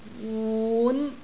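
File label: "wun5", Cantonese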